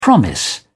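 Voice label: "promise", British English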